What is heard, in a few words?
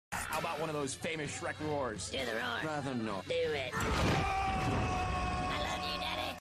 roar